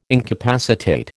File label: "incapacitate", American